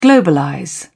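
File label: "globalize", British English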